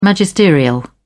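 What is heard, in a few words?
"magisterial", British English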